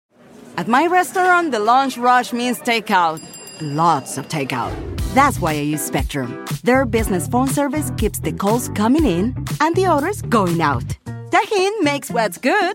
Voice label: commercial english

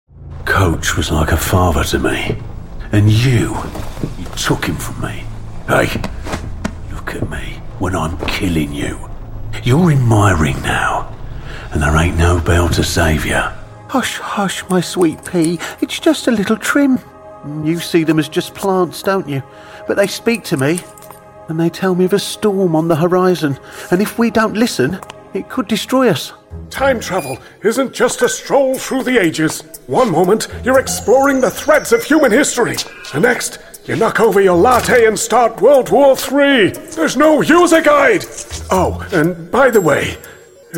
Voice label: character

